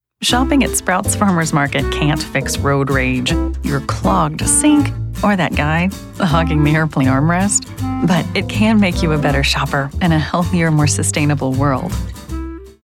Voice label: Radio Commercial Trusted Mom; Sprouts Farmers Market